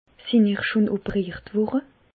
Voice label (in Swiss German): operiert, Bas Rhin; medecine